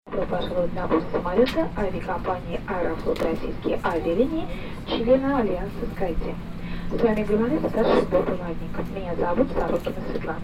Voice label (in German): Flugzeugansage; 2006-kirgistan; Aeroflot